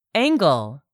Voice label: "angle", English; 3000 words

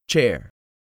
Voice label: "CHAIR", English; 3000 words

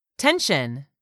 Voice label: "tension", English; 3000 words

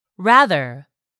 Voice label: "rather", English; 3000 words

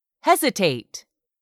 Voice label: "hesitate", English; 3000 words

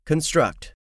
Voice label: "construct", english; 3000 words

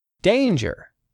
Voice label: "danger", English; 3000 words

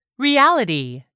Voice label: "reality", English; 3000 words

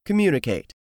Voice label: "COMMUNICATE", english; 3000 words

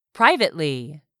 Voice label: "privately", English; 3000 words

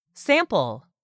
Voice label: "sample", english; 3000 words

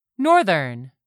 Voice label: "northern", English; 3000 words

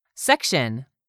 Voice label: "SECTION", english; 3000 words

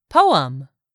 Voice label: "POEM", English; 3000 words

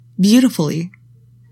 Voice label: "BEAUTIFULLY", english; 3000 words

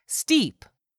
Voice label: "steep", English; 3000 words

